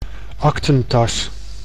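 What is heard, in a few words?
"aktentas", Dutch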